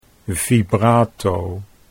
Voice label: "vibrato", Dutch

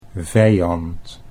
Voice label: "vijand", Dutch

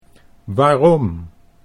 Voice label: "waarom", Dutch

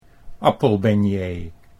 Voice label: "appelbeignet", Dutch

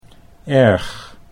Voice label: "erg", Dutch

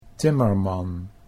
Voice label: "timmerman", Dutch

